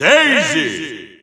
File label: Russian Announcer; SSBU